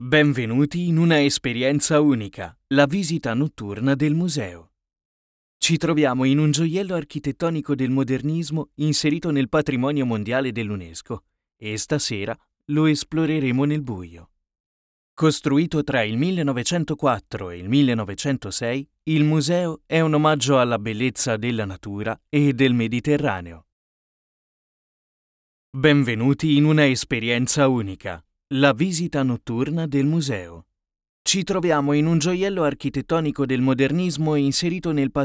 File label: italian narration